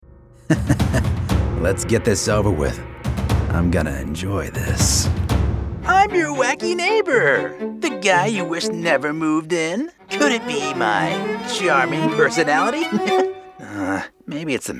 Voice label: anime